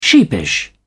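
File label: "sheepish", British English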